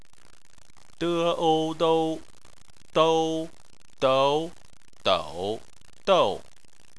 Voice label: "dou", chinese

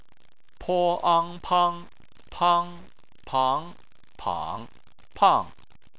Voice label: "pang", chinese

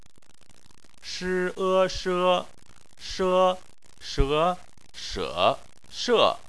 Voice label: "she", chinese

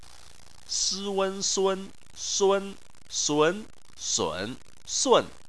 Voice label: "sun", chinese

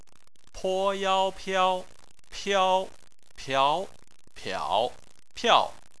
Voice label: "piao", chinese

